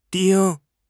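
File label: "丁", Mandarin Chinese; HSK6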